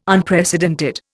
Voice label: "Unprecedented", Indian

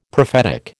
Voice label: "prophetic", American

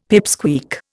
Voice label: "pipsqueak", Indian; Dictionary

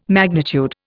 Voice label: "magnitude", Indian

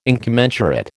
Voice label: "incommensurate", American

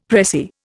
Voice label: "pressie", Indian; Dictionary

